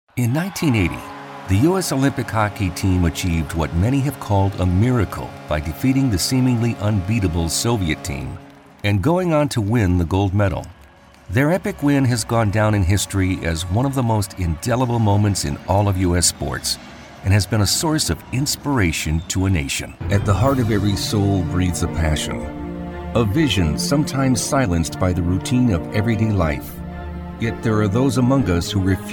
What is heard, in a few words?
Narration